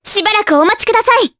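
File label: Vox Skill Japanese; Mirianne; kingsraid; jp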